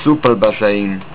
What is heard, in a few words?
Pool, estonian